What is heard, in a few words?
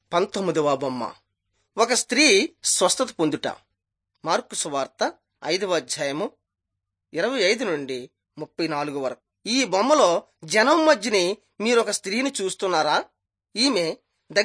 telugu bangladesh; jesus teacher and healer